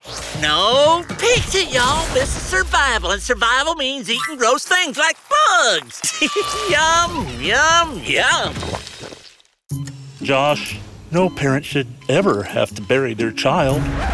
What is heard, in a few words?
Animation